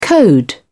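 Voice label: "code", English, British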